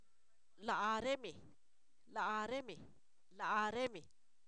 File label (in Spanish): ellos, Zapoteco, san miguel albarradas; pronombres libres